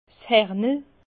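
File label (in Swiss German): "hernel", Alsatian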